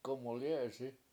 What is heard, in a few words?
ball flies, Washo